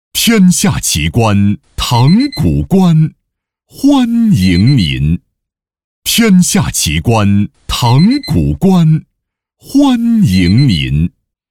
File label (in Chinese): 男 开场白 欢迎您